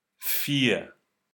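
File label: "Vier", German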